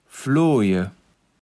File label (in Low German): "flooje", kaevels platt